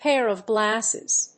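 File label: "pair of glasses", English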